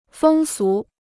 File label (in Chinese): "风俗", Chinese